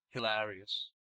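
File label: "hilarious", American English; pronunciations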